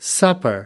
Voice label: "supper", us